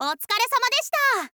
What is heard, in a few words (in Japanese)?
"otsukaresamadeshita", girl